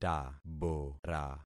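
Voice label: "tabora", concatenated syllables; Affixing experiment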